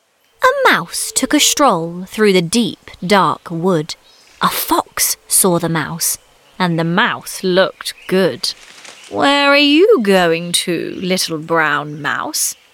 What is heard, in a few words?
Audiobook, West Country